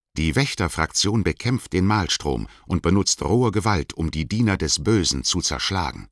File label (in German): German; Tut faction recruiting; aronwk collection; ndaudio